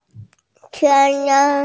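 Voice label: "ke3le4", Mandarin Chinese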